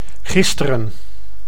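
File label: "gisteren", Dutch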